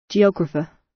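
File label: geographer, British; Study, education, research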